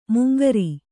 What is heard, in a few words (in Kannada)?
"ಮಂಗರೆ", kannada